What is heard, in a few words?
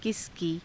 "kisa kii", Hindi